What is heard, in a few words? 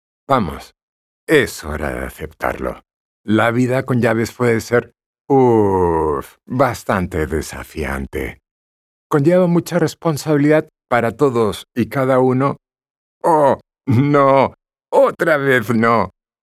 spanish real estate